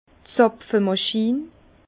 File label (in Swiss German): "zapfemachin", Bas Rhin; vin; capsuleur